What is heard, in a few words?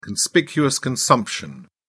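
"conspicuous consumption", English, British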